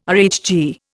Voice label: "RHG", Indian

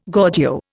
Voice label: "Gorgio", Indian

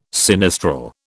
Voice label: "sinistral", British

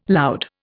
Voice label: "loud", Indian

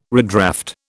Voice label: "redraft", British

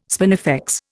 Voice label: "spinifex", Indian

